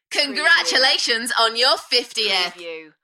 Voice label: UK female Congratulations on your 50th Enthusiastic; beanstalk audio